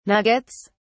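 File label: "nuggets", British English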